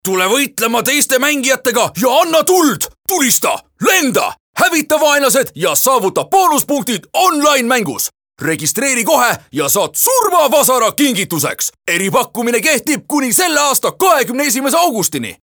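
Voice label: Estonian male Rage, vo examples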